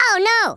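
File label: "uh oh" spoken, Redneck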